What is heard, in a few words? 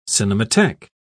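"cinematheque", British English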